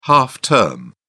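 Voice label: "half-term", British English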